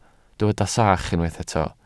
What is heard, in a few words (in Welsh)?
"sach", Welsh